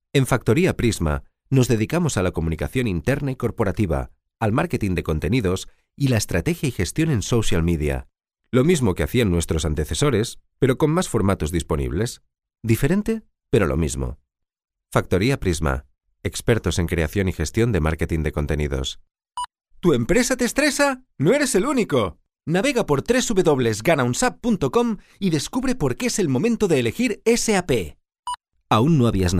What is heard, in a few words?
spanish male spanish commercial